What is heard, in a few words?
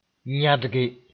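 "yãdge", Mossi; fan the flames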